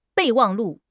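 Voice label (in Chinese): "备忘录", Chinese; HSK6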